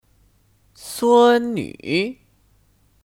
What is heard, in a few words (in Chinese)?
"孙女", Sūnnǚ; (Kata benda): Cucu perempuan (dari anak laki-laki)